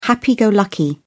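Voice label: "happy-go-lucky", British English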